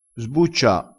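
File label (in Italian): "sbuccia", dialettale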